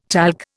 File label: "talc", Indian